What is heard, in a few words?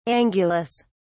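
"angulous", British English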